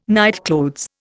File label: "nightclothes", Indian